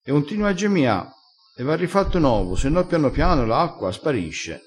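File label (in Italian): "gemia", vocabolario dialettale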